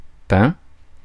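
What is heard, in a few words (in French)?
"pain", French; Mots francais phonemes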